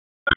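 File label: Raleigh Police North District Tactical; wake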